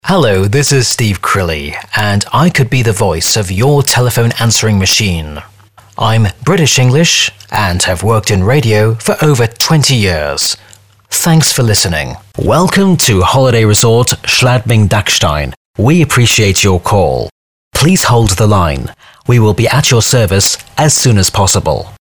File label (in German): Sprecher Native speaker